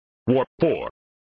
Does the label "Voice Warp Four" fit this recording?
Yes